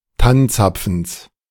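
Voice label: "Tannzapfens", German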